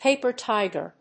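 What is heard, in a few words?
"paper tiger", English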